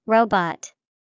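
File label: robot, English vocabulary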